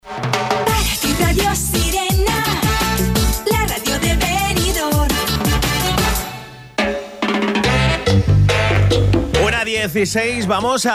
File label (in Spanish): entrevista radio